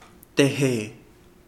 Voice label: which one, Kapingamarangi